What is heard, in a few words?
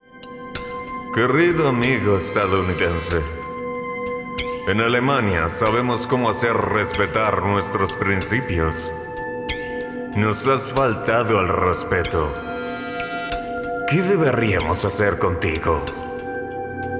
German Accent Mafia Soldier